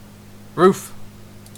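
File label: "roof", Canadian English; pronunciations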